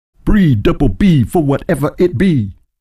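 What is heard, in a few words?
The broadcast voice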